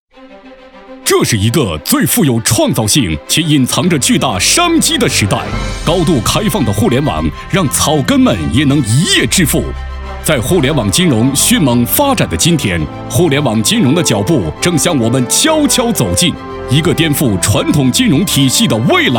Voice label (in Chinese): 男 专题 激情澎湃 理财专题